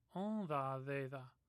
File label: "onthathetha", Omaha